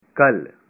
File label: "tomorrow", Punjabi